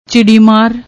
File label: "chidi maar", Punjabi; ਚਿੜੀਮਾਰ